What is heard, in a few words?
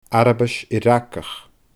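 "Arabais Ioràcach", Scottish Gaelic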